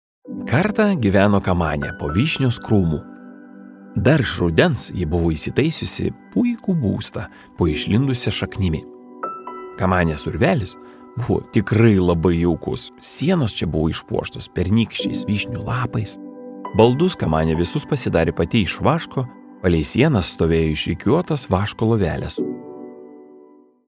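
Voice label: Lithuanian, male, audiobook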